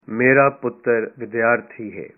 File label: my son is a student, punjabi